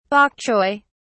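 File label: "bok choy", British English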